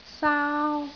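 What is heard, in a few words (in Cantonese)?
"saau1", Cantonese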